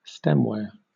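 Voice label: "stemware", British English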